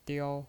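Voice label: "diao" Mandarin Chinese, first tone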